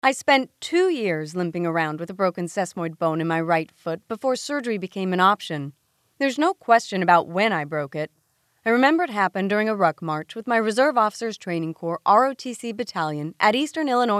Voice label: Audiobook Narration